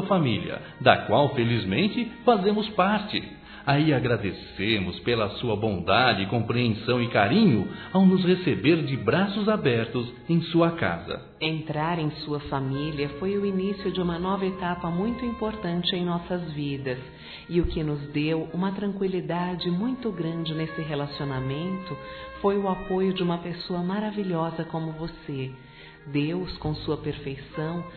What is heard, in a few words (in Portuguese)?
MÃE SOGRA DUAS VOZES, Telemensagens, Dia das Mães; Telemensagens 2017